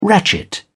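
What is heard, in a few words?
"ratchet", British English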